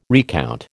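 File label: "recount", American English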